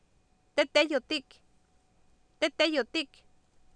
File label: tEtEyotIk, Oapan minimal pairs female; Oapan minimal pairs 2000